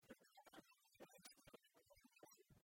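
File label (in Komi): рытладор нин енэжыс тырӧма кодзувъясӧн, Komi, female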